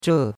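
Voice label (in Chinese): "zhe4" Chinese; Cours de Chinois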